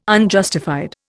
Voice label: "Unjustified", Indian